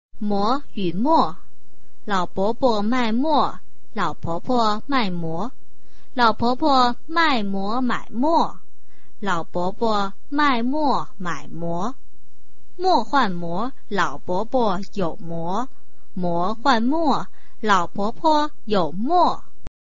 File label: chinese tongue twister